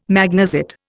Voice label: "magnesite", Indian

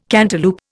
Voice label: "cantaloup", Indian

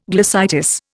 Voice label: "glossitis", Indian

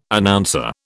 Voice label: "Announcer", British

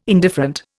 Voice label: "indifferent", Indian

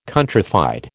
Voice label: "countrified", American

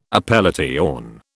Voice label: "appellation", British

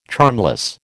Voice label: "charmless", American

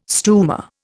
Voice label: "stumer", Indian

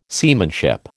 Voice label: "seamanship", American

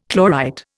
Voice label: "chlorite", Indian

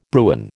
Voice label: "Bruin", American

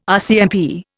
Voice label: "RCMP", Indian

